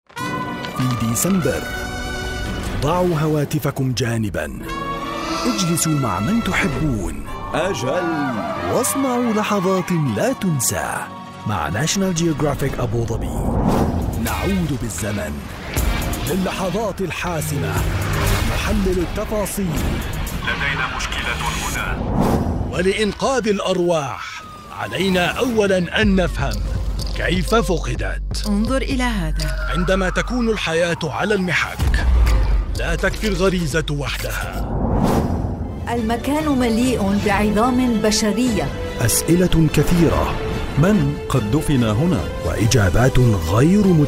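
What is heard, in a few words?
MSA PROMOS, Modern Standard Arabic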